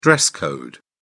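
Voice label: "dress code", British English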